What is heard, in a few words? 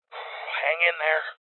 Voices Heal Male; rebel cops 2019 gamerip